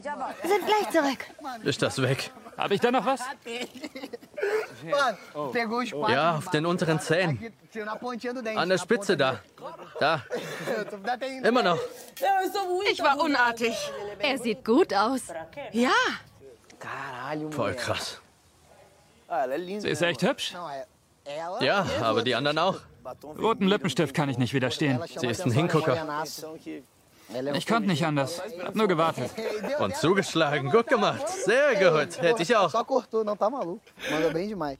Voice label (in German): voiceover dynamisch